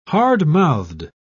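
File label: "hardmouthed", British English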